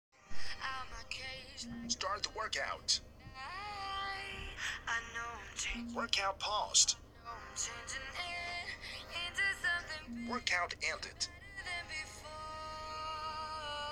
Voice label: workout voice OK; スマートウォッチ（GT Runner）で音楽を聴いている。; 音楽再生中にワークアウトの音声がただしく聞こえるようになった！; ジョギングのときに